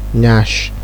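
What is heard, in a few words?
"nyash", Nigerian Pidgin, male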